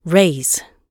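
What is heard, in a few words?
"raise", British English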